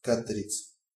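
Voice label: squirrel description, Bulgarian; animals; mammals; rodents; stamps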